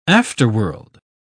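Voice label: "afterworld", British English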